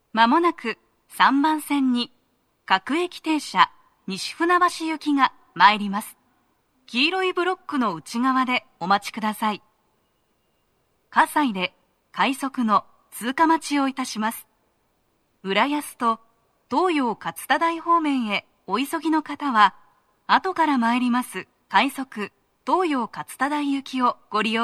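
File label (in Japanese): 接近放送, 女声, 放送は「各駅停車 西船橋行き」です。葛西で、快速 東葉勝田台行きの通過待ちを致します。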